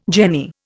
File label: "jenny", Indian